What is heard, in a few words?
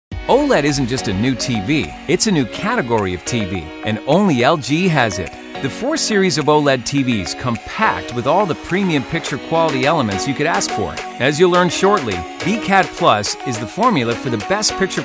Employee Sales Training